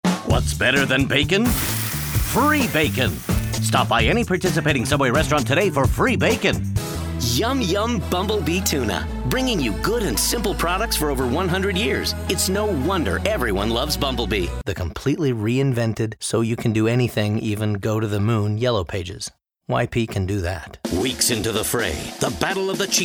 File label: Commercial